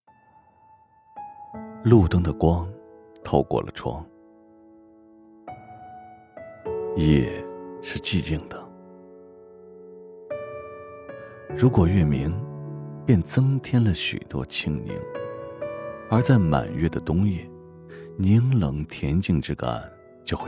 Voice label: 晚安电台

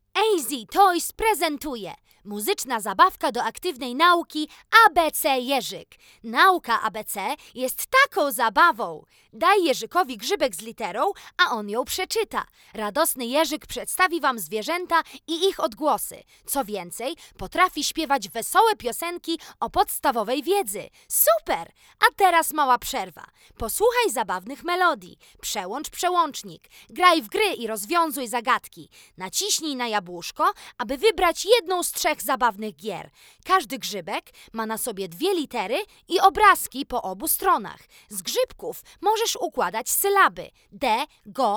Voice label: 波兰语女轻快激情

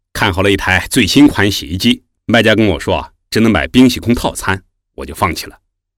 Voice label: 大叔 角色 样音

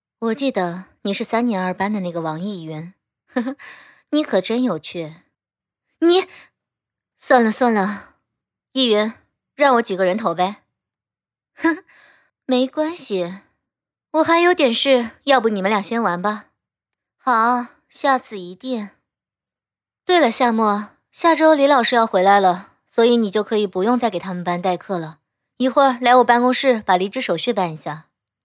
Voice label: 御姐老师; 王者

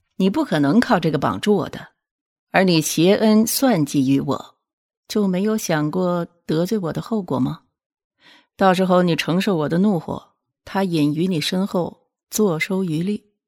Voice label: 阴险

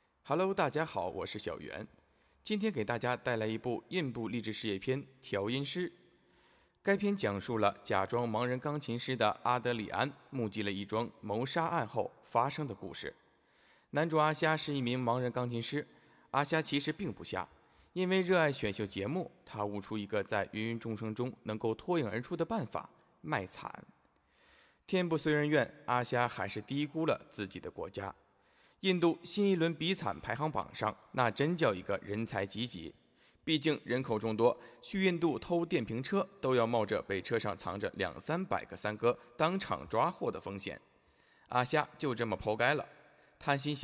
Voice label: 电影解说

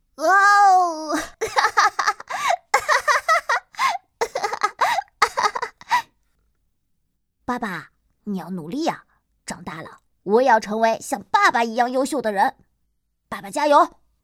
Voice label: 正太 活力

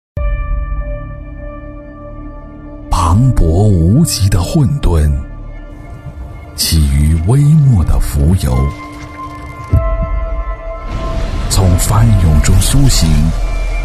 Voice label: 博物馆宣; 峨眉山博物馆; 央视

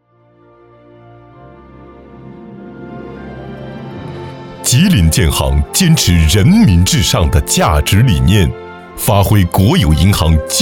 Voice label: 用了点劲的版

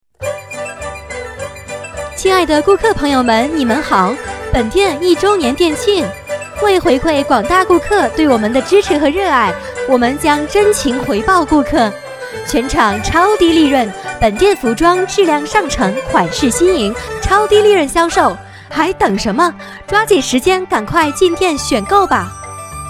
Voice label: 商场促销

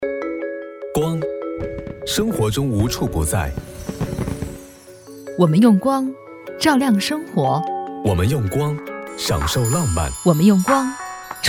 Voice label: 公益宣传请文明使用远光灯

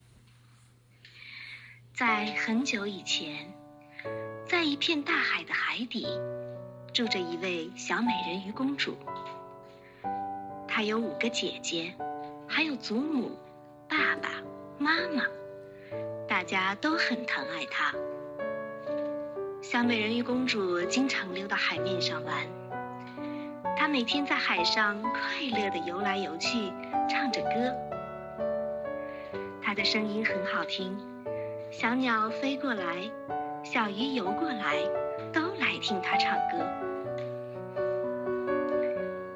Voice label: 有声书片段; 小美人鱼

